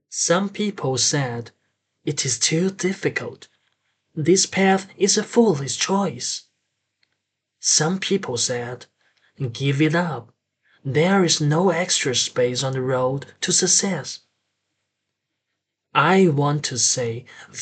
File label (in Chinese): 英语